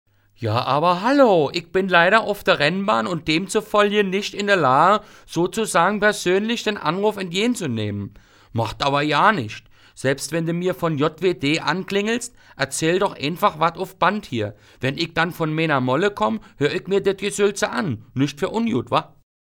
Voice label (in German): ansagen fuer anrufbeantworter ein berliner